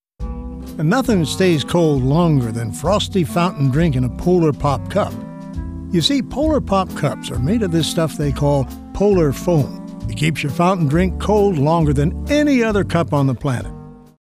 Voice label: folksy